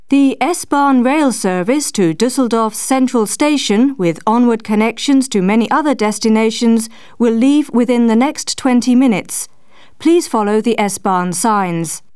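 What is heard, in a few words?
S BAHN English, Chimes and Announcements; Bosch; Presentazioni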